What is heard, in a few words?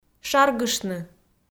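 "шаргыштны", Komi, female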